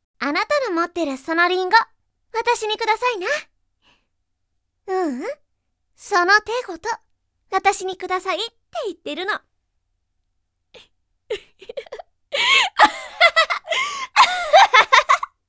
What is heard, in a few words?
girl